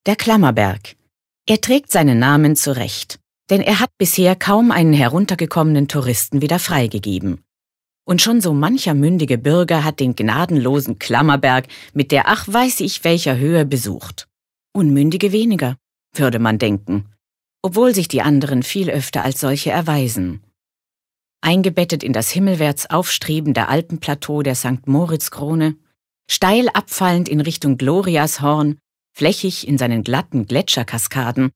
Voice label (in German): Kommentar Neutral